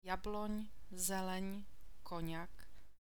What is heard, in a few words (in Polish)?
n przyklady, czeski alfabet; jabloň, zeleň, koňak; jabłoń, zieleń, koń